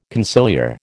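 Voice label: "conciliar", American English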